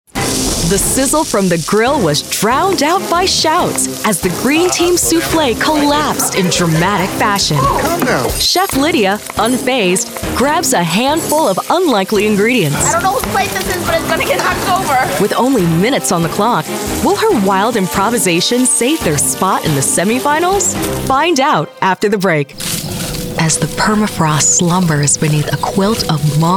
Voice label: Tv Narration